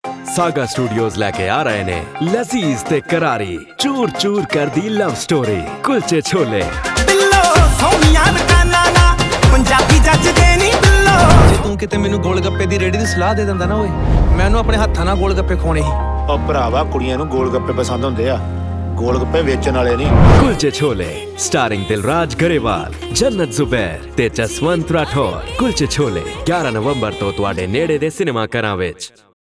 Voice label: male indian hindi punjabi radio promo